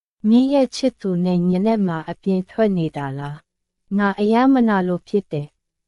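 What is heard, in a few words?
Myanmar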